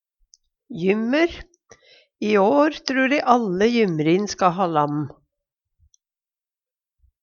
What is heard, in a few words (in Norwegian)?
jymmer, Numedalsmål